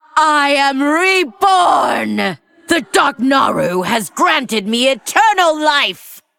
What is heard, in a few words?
Female Undead Victory; Lich Tamsin; Pre Explosion